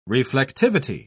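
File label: "reflectivity", English, uk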